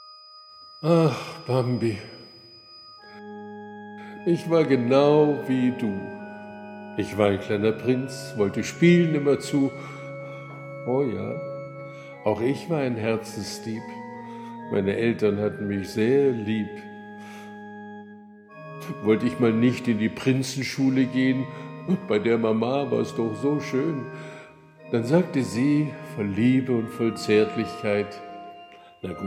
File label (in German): monolog trauriger fuerst